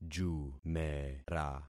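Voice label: "jumera", concatenated syllables; Affixing experiment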